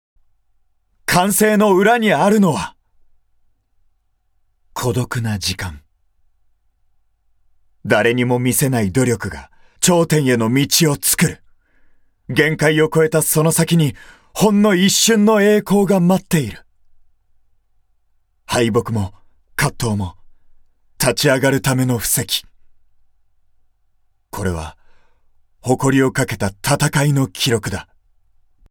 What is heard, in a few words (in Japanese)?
◆スポーツ応援◆